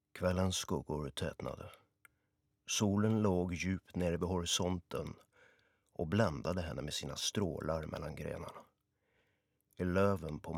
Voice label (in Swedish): story swe